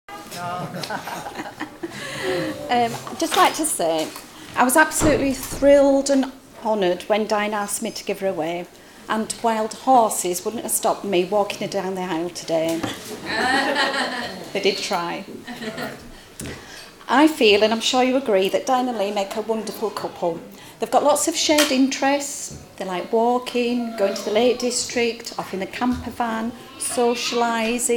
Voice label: venue speeches recorder solution non wireless sample